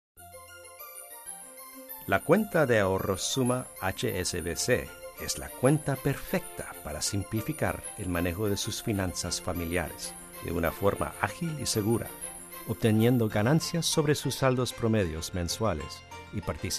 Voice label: spanish spot; hsbc